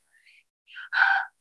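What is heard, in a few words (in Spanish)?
Voice Acting es F, Spanish, female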